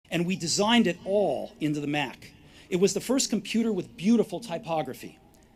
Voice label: Commencement Address; Steve Jobs; 2005; Stanford